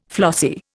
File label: "flossy", Indian